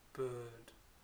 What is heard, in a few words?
"bird", pre-voiced